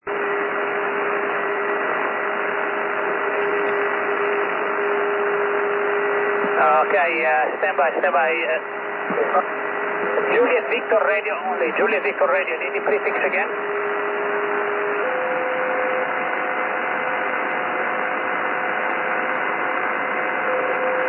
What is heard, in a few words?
SSB, 6m; Recorded by IT9TYR (IW9CER old call)